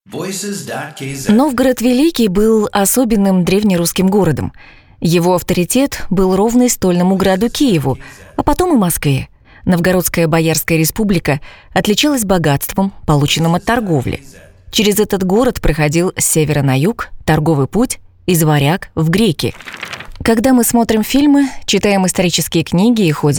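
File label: Russian book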